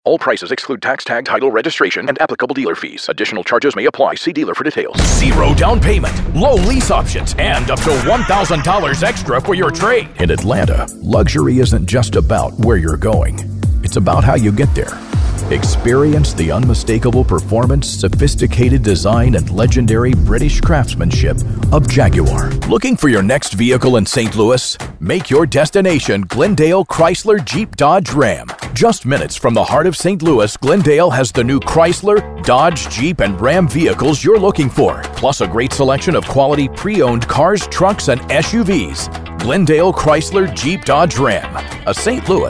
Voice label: Car Spots

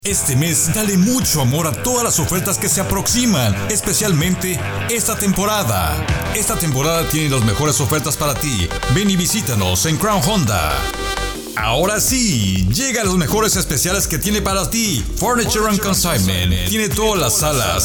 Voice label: Male

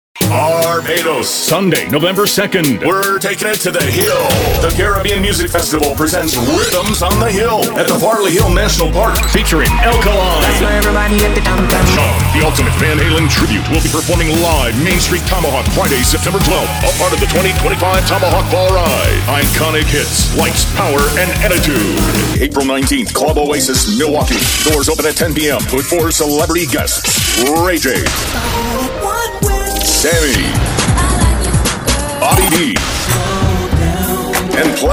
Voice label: Concert Spots, Radio TV Imaging

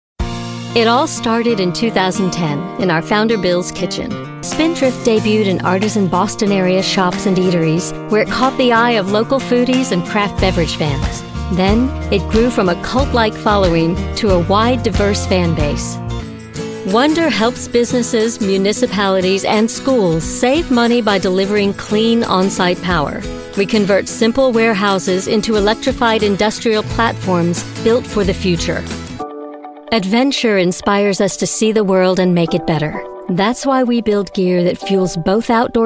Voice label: Narration, Female Narrations, Audio Books

